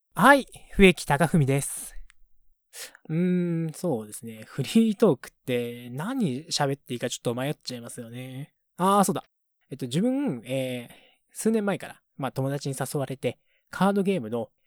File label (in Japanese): フリートーク